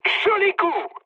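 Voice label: French player killed enemy mood high; War Thunder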